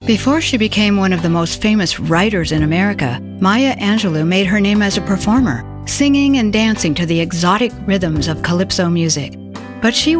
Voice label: Narration